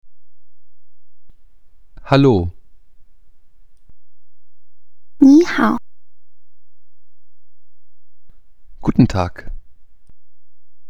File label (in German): chinesisch